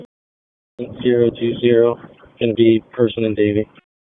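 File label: Police Downtown District Dispatch, p25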